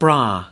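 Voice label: "bra", US English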